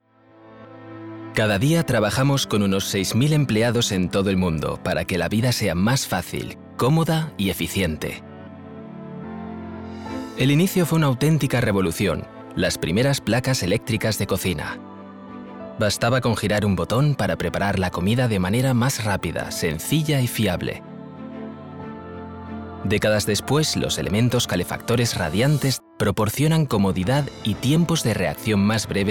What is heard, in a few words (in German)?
spanisch male voice over industriefilm kuechenhersteller innovationen